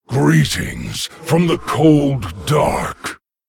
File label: Male Lich Greetings; en